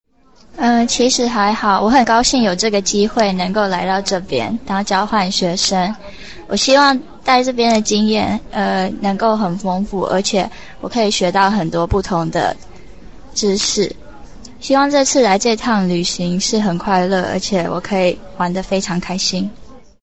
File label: Chinese Conversation; Conversation1 SampleA Score 6